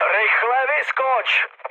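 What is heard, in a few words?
bailout, Czech, mood med; speech; War Thunder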